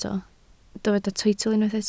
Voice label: "teitl", Welsh